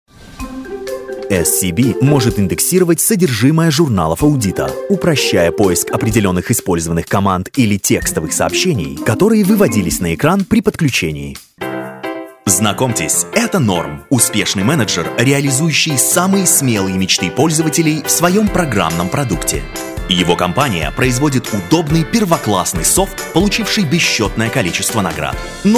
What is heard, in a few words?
russian voice over male narration